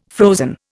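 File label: "frozen", Indian English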